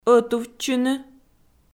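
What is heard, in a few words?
"ӧтувтчыны", Komi, female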